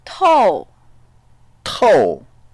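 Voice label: "tou4", Mandarin Chinese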